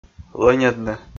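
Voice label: "лӧньӧдны", Komi, male; kpv audio dict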